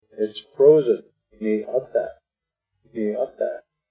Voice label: frozen, nii'óte' = it is freezing, frozen, Arapaho; Arapaho Language Project; RMNP; Weather Vocabulary related to Winter